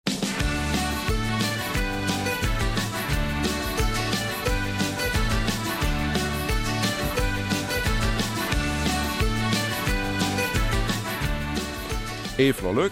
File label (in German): Eifeler Mundart; April April